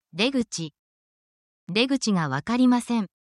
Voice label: japan essential phrases for locations and directions